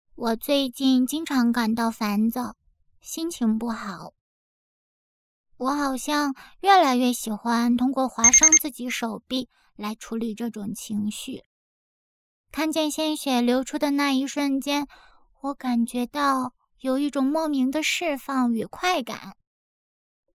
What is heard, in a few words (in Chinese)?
动画脚本 噪音 5个角色; HOYA; 精神病医院